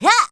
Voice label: voice stroke